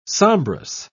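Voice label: "sombrous", British English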